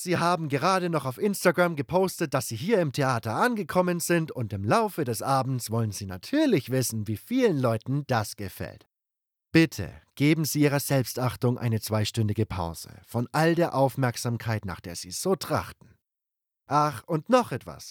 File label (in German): aufführung durchsage